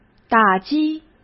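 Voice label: "打击", Mandarin Chinese; hsk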